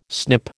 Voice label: "snip", American